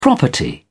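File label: "property", British English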